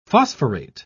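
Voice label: "phosphorate", British English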